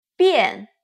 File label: "bian", Chinese